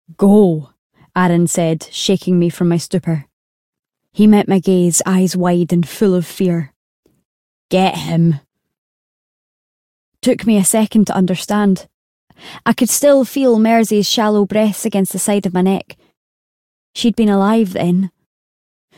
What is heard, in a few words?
Audiobook, regional accents